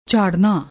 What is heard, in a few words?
"jhaarrna", Punjabi